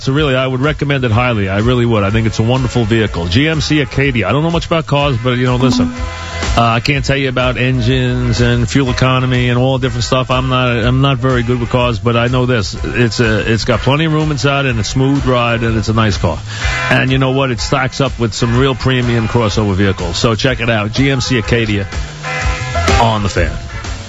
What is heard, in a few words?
Car Commercial; WFAN